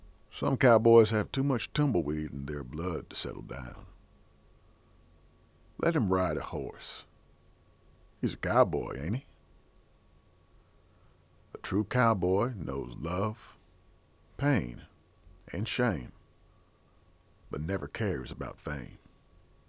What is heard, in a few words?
COWBOY; AUDITION